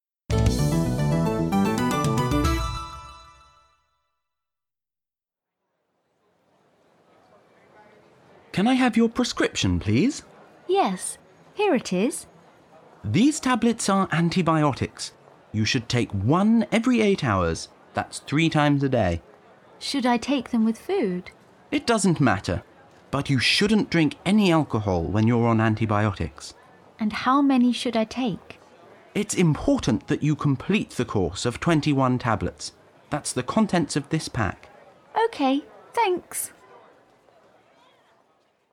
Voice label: voice sample language programme dialogue